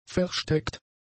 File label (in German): "versteckt", German; adjectives